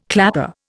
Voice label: "clapper", Indian